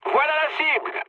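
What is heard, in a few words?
near waypoint, mood medium, French; War Thunder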